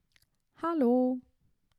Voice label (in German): "Hallo", German